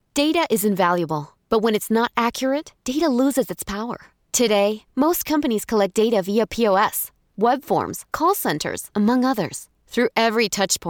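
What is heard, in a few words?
E-learning, American English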